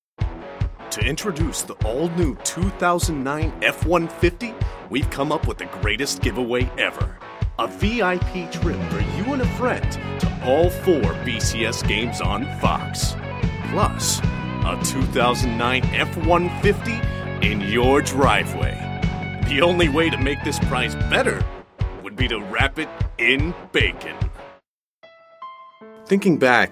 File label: VO Commercial